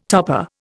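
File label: "topping", Indian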